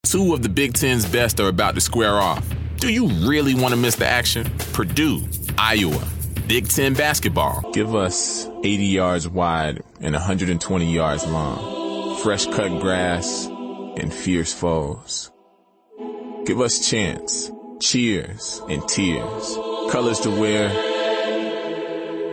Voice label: Sports Promo